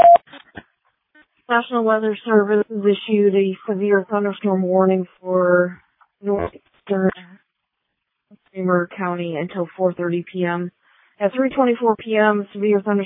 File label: All Call, dispatch